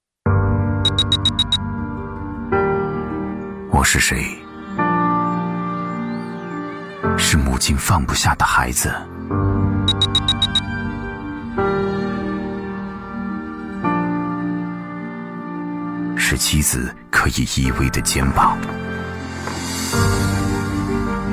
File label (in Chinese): 男 独白